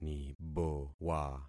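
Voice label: "nibowa", concatenated syllables; Affixing experiment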